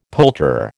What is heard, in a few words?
"poulterer", American